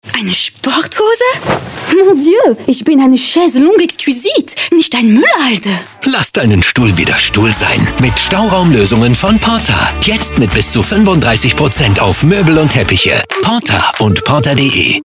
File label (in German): Franzoesisch; porta; Stuhl